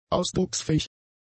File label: "ausdrucksfähig", German; adjectives